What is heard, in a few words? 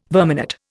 "verminate", Indian